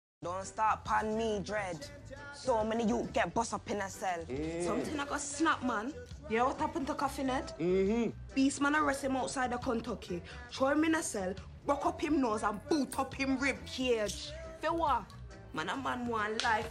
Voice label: Narrative Reel